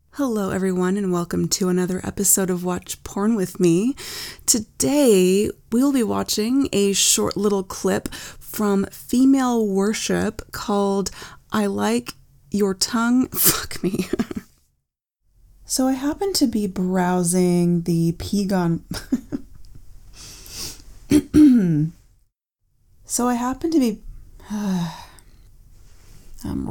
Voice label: [F4A] [mic mishap][silliness]; Blooper Reel #3!; [I'm a dork]